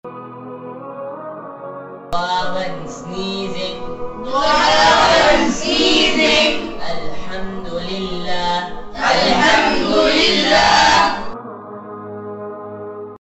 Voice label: When Sneezing